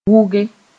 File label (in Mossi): "wʋge", moore; weave